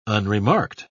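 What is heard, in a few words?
"unremarked", British English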